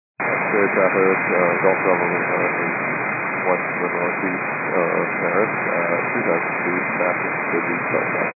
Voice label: 122.925 MHz; scanner radio